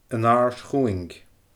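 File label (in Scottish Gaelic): "An AAird Chumhaing", Scottish Gaelic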